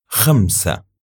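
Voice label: numbers "khamsa", Arabic; raqm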